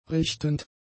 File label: "richtend", German; adjectives